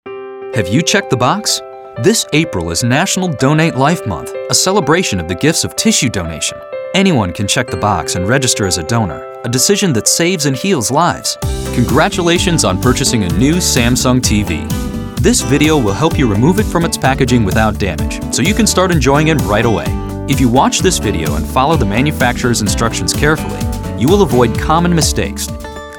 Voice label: Male, narration